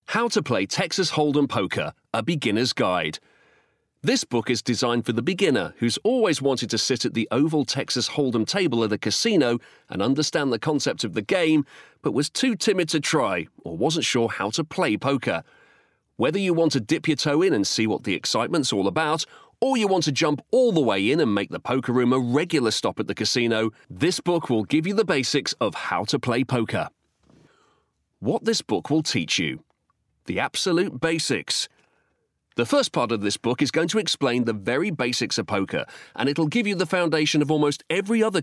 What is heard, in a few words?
Bookread; Poker